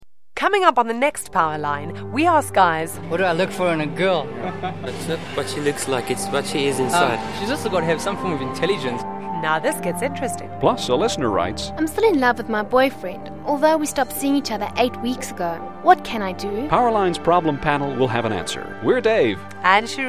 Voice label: promo